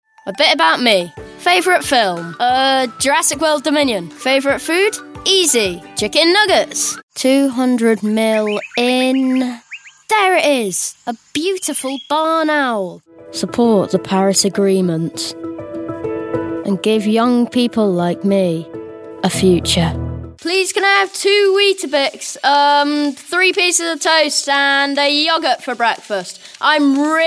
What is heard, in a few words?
son 11; 11 in 2023